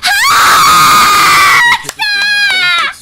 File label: Victory, Worms speechbanks; Uruha Rushia